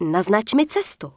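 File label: Night Elf Female error cant create here, Czech; wowpreklad; czwow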